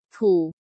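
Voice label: "Tǔ", Chinese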